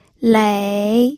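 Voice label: "lei", third tone, pinyin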